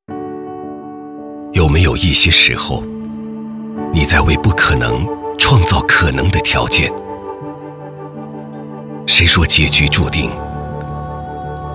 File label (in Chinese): 男, 独白; 招商证券西安部